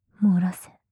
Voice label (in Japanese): 漏らせ・極近・右, ASMR